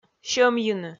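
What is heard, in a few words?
"сьӧмйыны", Komi, female; kpv audio dict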